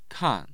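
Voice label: "kan", Mandarin Chinese, tone 4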